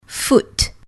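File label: "foot", English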